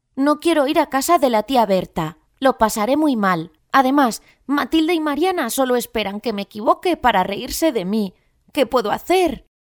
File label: spanish girl